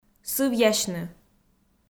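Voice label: "сывъясьны", Komi, female; audio dict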